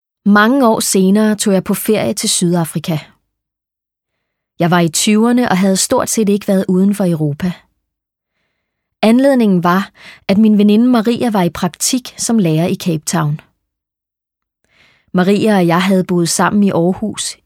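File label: Danish, Audiobook, female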